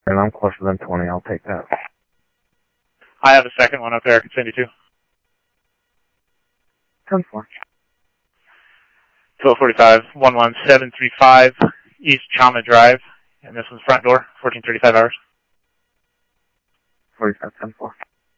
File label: Scottsdale Police, scanner audio; SCTSDLE PD - PD2; ThompsonPeakHigh